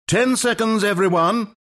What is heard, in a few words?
announcer count battle; dlc stanleyparable